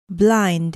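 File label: "blind", English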